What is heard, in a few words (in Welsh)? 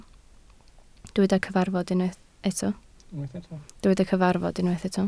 "cyfarfod", Welsh